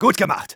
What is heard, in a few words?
good job, de; Engineer